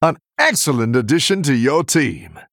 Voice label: Male Human Recruit Medium Minion

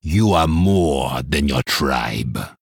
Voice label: male troll, Play

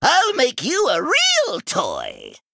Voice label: Male Gnome Play

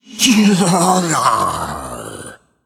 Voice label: Male Skeleton Play

